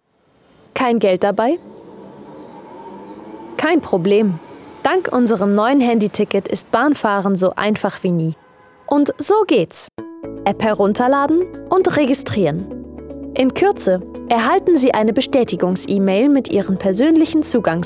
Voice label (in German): Erklaerfilm Handyticket